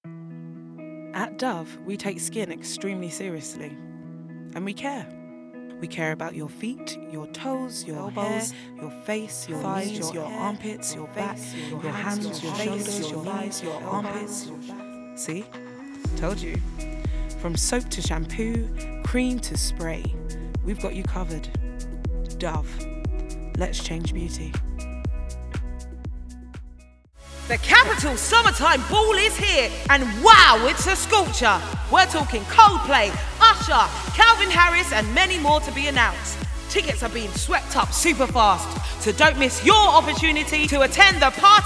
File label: Commercial Reel